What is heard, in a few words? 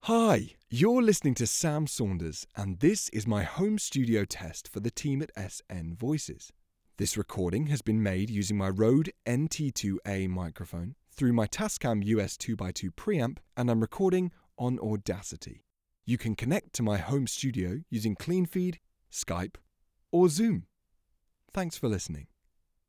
Home Studio Test